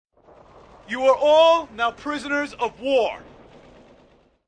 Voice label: NowPOWs AMB, Talking Sound Effects, Male; VoiceBox